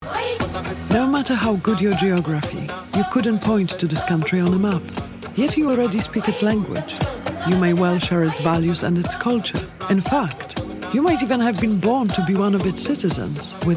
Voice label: English, Eastern European, Polish, Female, 30s-40s